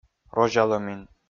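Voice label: "розялӧмин", Komi, male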